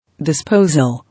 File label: "Disposal", English